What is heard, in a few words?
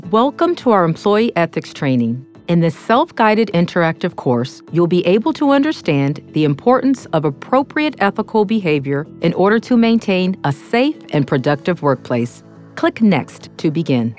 Corporate B2B Training Sample